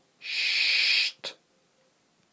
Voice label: Chuuut Sound